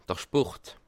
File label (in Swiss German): "sport", alsace